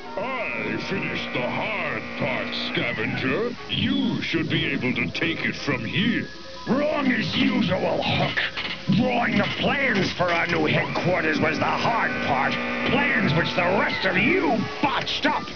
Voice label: bickering